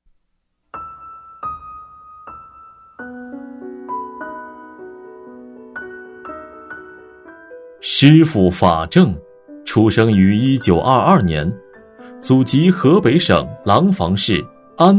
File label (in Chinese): 专题 男